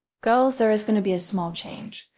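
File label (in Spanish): Voice Acting, es, female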